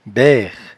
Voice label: "bairre", patois jura

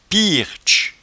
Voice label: "piertche", patois jura

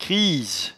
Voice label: "criese", patois jura

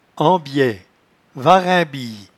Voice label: "embievarimbie", patois jura

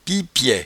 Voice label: "piepiait", patois jura

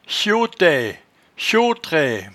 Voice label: "chotaie chotraie", patois jura

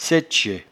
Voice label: "saitchet", patois jura

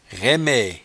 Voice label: "raimaie", patois jura

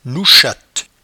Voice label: "nouchatte", patois jura

